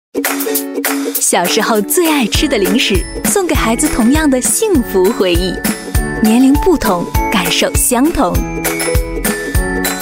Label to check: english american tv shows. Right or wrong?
Wrong